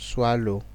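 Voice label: "swallow", Nigerian Pidgin, male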